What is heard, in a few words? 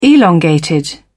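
"elongated", British English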